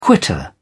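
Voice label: "quitter", English, uk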